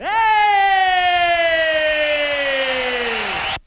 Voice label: "hey" male long; ooh ahh